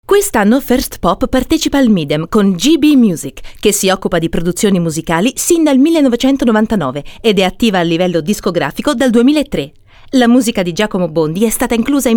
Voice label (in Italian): ITALIANO